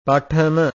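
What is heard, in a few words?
"pathama", Pali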